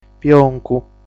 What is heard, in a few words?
"Pioncu", sardu